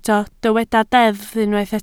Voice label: "deddf", Welsh

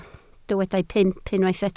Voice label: "pump", Welsh

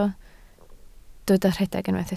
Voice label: "rhedeg", Welsh

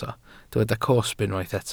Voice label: "cosb", welsh

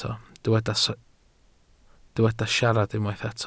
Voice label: "siarad", Welsh

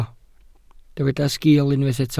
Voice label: "sgiil", Welsh

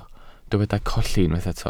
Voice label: "colli", Welsh

